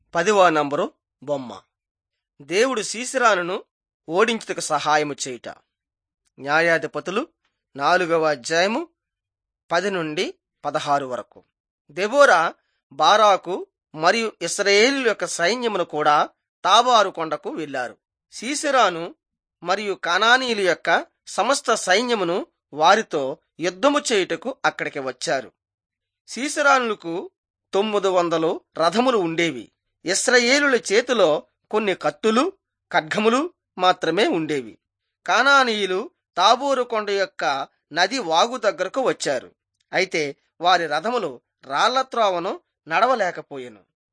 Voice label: telugu bangladesh

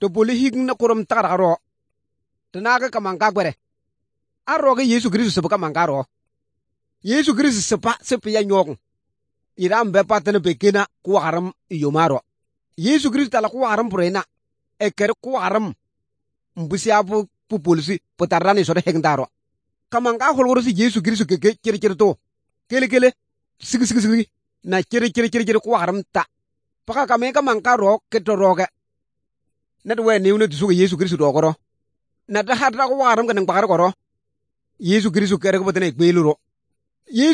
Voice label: good news, kabiye bible

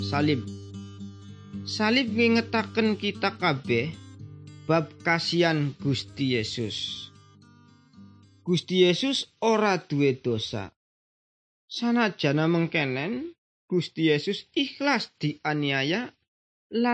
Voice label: good news jawa indramayu, javanese bible

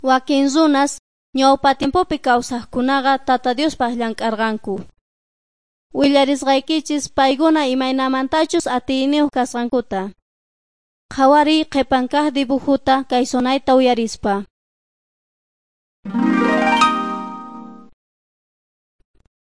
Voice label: quechua bolivian cochabamba; servants of god

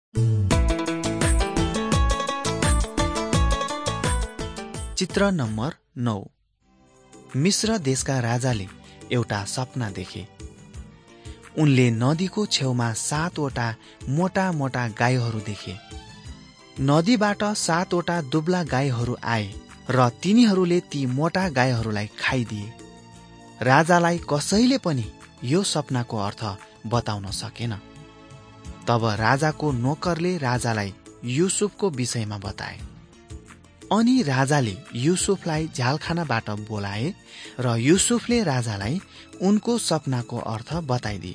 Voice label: mighty men of god nepali

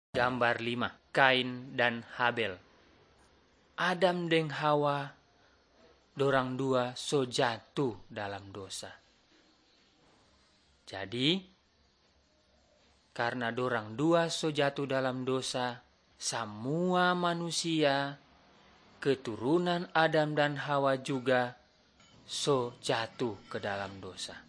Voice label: malay manado; good news

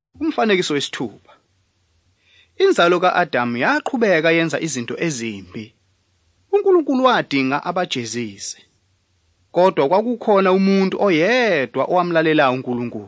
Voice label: zulu bible; good news

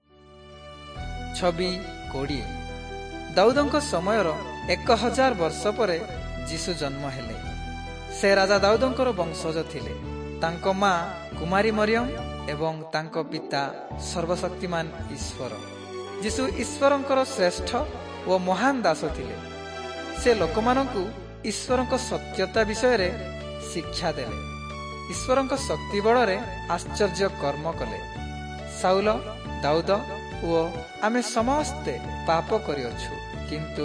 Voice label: southern oriya; servants of god

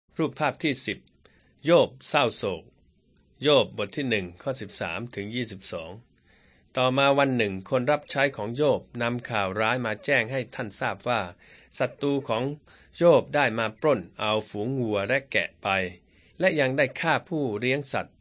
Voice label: beginning with god thai central

